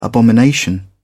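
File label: "abomination", British English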